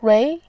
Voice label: "rui2", voice

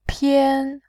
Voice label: "pian1", voice

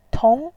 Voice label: "tong2", voice

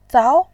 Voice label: "zao2", voice

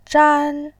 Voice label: "zhan1", voice

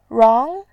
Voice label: "rang2", voice

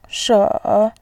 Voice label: "she3", voice

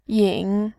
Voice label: "yin3", voice

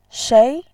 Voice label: "shei2", voice

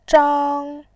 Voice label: "zhang1", voice

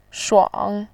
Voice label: "shuang3", voice

